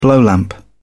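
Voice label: "blowlamp", British English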